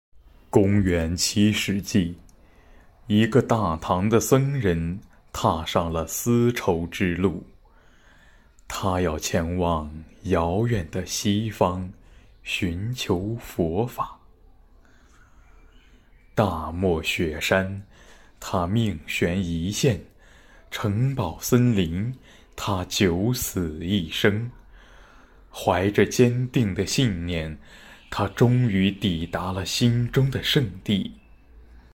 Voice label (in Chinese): 纪录片; 玄奘之路